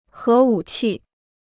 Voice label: "核武器", Chinese

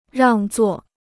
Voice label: "让座", Chinese

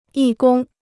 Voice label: "义工", Chinese

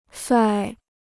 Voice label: "帅", Chinese

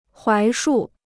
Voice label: "槐树", Chinese

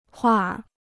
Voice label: "画儿", Chinese

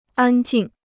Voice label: "安静", Chinese

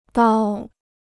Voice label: "刀", Chinese

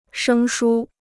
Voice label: "生疏", Chinese

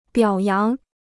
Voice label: "表扬", Chinese

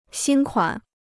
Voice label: "新款", Chinese

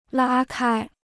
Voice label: "拉开", Chinese

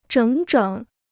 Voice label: "整整", Chinese

